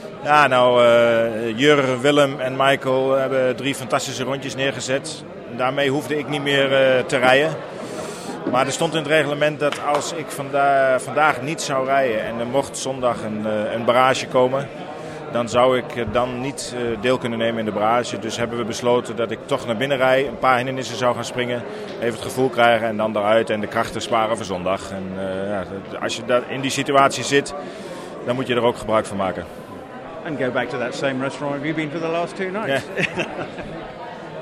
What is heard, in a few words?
dutch; Bar dubbeldam rd1